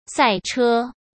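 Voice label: "赛车", Mandarin Chinese; chinese-flashcards; hsk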